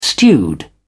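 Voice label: "stewed", British English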